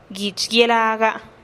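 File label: "guich guielaga", mi ceja, Teotitlán del Valle Zapotec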